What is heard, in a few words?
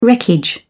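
"wreckage", English, British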